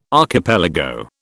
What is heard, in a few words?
"archipelago", British